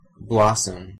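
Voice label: "blossom", English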